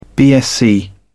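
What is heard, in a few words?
"bsc", English, British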